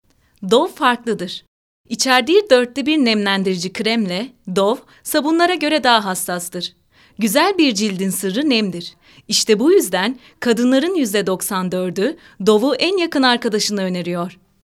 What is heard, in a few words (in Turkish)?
REKLAM